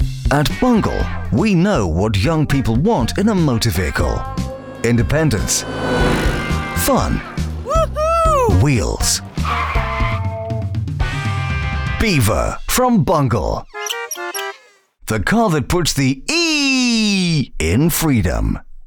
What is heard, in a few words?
Ad; Bungle BeaverWheels; Two Point Campus